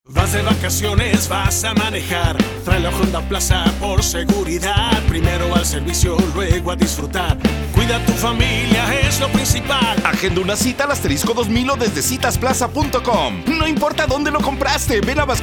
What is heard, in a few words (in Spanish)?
Jingle Locucion; Honda Plaza